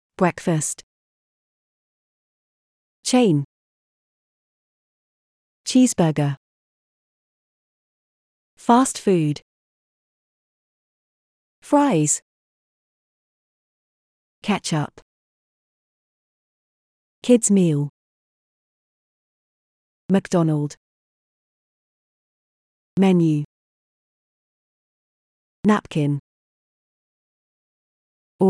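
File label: British; mcd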